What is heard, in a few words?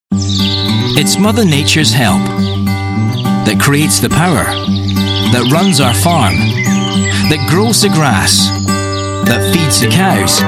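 english scottish male narration; voice demos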